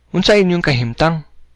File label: how are you, cebuano; Unsa inyong kahimtang?